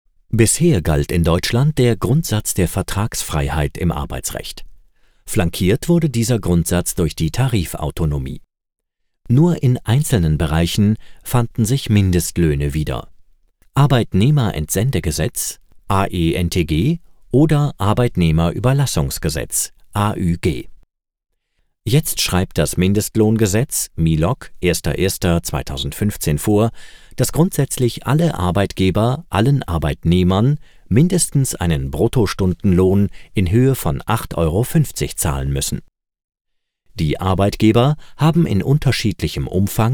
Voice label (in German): ELearn Recht und Steuern